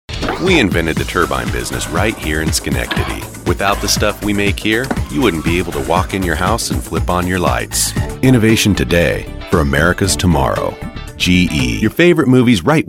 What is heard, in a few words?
COMMERCIALS